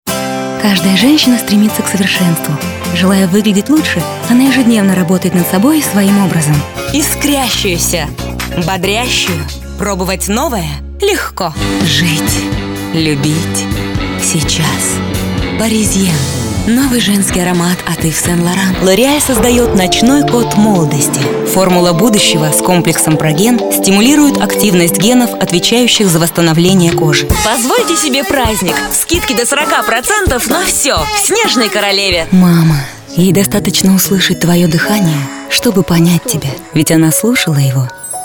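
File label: Russian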